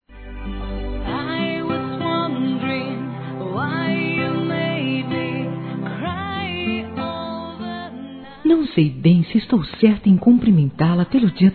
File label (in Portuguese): AMIGA GRÁVIDA F, Telemensagens; Telemensagens 2017